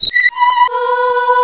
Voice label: "2", female; DeluxeMusic2

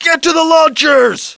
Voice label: launch, thug; SINDEMO

